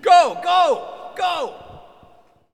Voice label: Hockey Male Go; Hockey Vocal Sounds